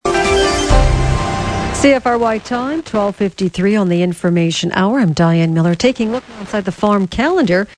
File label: Lcl ads, FM DX; PORTAGE; COUNTRY; Es (776 miles); CFRY 93.1